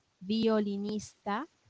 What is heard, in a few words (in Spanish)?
"violinista", espanol